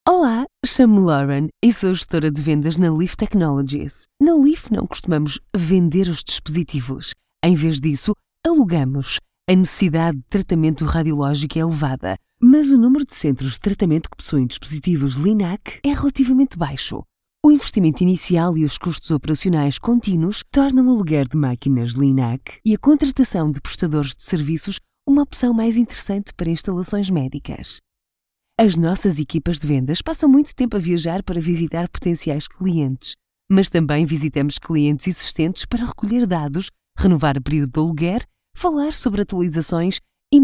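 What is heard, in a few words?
Portuguese, female, e-learning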